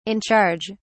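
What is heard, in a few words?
"in charge", British English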